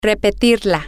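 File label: Spanish, to repeat it feminine, female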